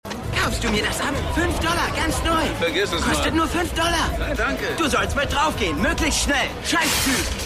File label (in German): Junger Verkaeufertyp; The Wire